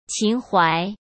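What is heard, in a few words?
"情怀", Mandarin Chinese; chinese flashcards; hsk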